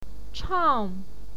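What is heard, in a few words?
"chang", Mandarin Chinese, fourth tone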